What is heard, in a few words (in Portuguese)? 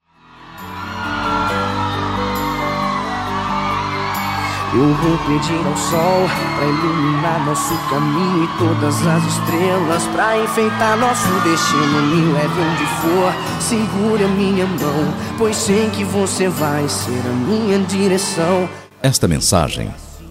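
telemensagem, male; DIA DOS NAMORADOS MASC; telemensagem dia dos namorados geral masculina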